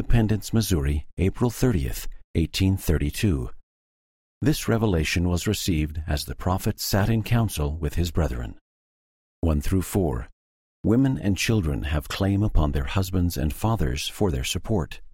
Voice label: section female voice eng